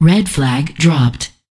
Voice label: Voices Announcer Status; unreal development kit

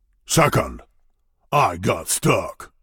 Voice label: Voice Dialog; We Are the Dwarves

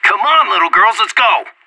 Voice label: Anarchist Pep talk

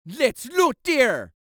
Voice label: Voices Jamaican Lets Loot There

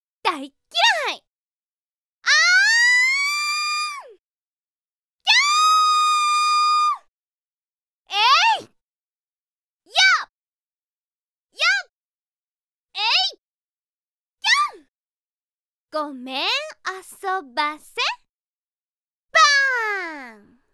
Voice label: character voice; D-XHIRD; KAREN

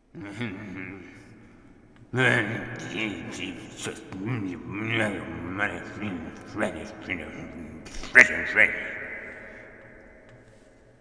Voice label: Drunk Chatter; a dump in the dark

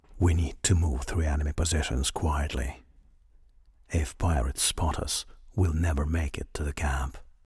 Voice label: Voice Dialog

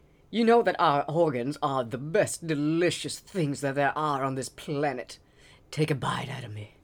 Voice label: Male Beggings

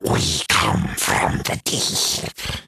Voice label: Deep Devils Taunt

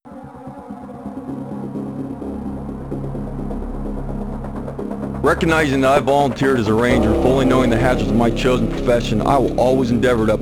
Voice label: Opening Narration; Spec Ops Ranger Elite; PS1

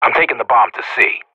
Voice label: Professional Radio bot planting; Counter-Strike Global Offensive; PS3 Windows Xbox 360

